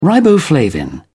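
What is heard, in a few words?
"riboflavin", British English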